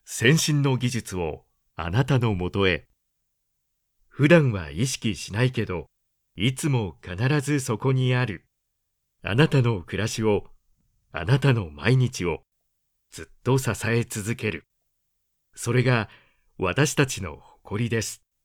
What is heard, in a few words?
male